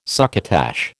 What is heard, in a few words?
"succotash", American